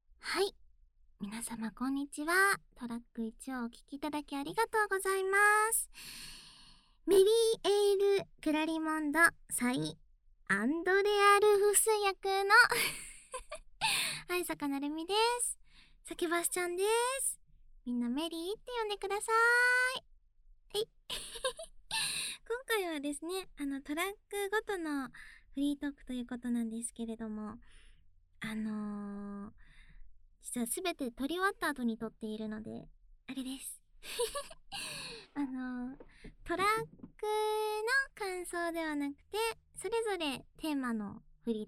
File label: FREE TALK, ASMR